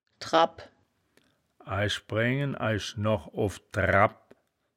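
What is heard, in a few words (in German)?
"drabp", wittlicher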